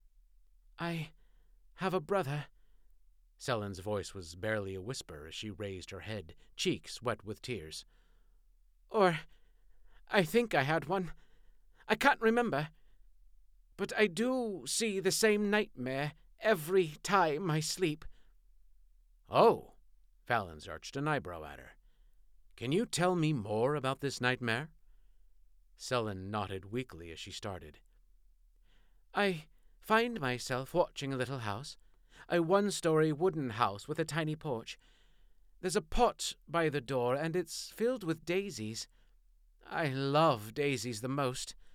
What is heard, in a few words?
female, british, horror, litRPG, 3rd person; audiobook narrator